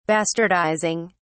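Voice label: "bastardizing", British English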